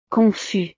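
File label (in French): "confus", French; estados animo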